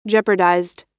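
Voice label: "jeopardized", American English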